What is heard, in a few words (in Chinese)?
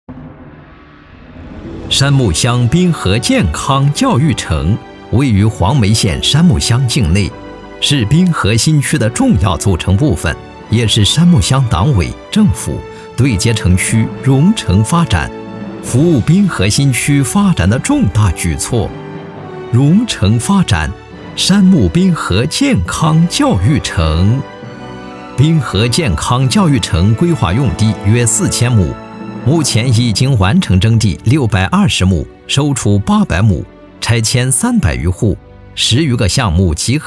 男 专题; 杉木乡滨河健康教育城